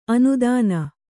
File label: "ಆನೆದಿನ್", Kannada